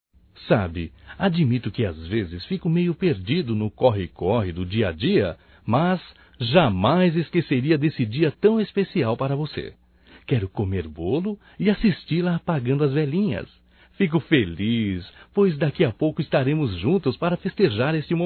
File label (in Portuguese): Aniv Romântico (M), masc